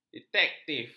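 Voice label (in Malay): "detektif", Malay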